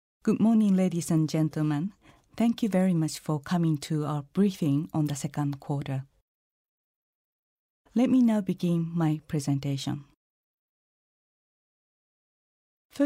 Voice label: english